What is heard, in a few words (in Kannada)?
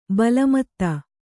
"ಬೆಲ್ಮತ್ತಿ", Kannada